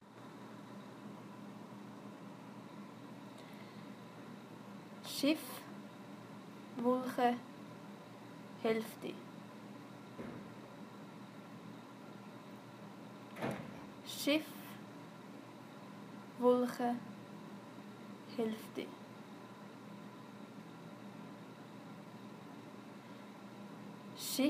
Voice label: experiment language german words